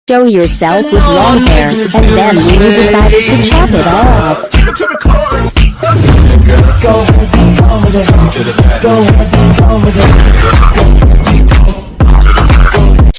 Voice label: english dialogue